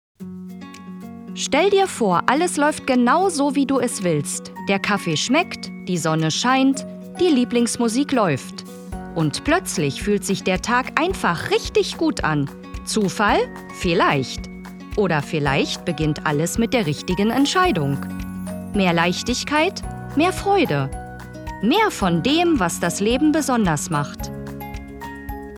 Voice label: Commercial Feel Good DE